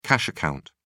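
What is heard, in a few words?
"cash account", British English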